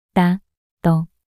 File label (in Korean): 나너 낱소리 여자